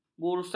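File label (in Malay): "bursa", Malay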